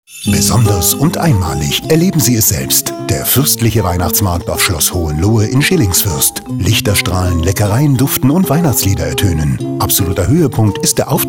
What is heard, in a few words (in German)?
Radiowerbung Weihnachtsmarkt